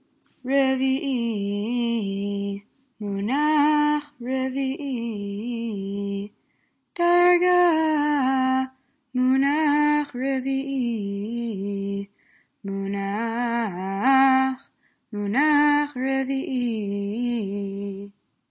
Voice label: polish lithuanian haftorah רביעי